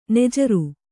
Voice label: "ನಾಜರು", Kannada